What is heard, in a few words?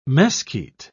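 "mesquite", British English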